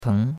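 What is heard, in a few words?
"teng2", Mandarin Chinese; Cours-de-Chinois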